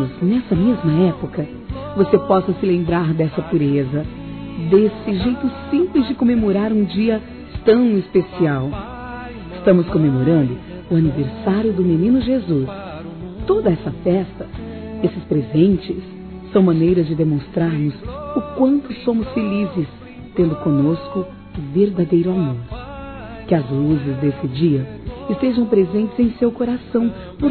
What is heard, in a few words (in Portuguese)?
Natal PARA Criança Feminino; Telemensagens; Natal e Ano novo